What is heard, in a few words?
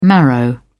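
"marrow", British English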